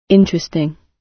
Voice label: "interesting", gb